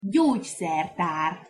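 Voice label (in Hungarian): "gyogyszertar" Hungarian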